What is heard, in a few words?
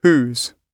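"who's", British English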